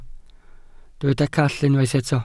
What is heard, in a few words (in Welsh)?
"call", Welsh